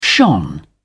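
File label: "shone", British English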